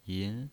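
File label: "yin" Mandarin Chinese pinyin voice, second tone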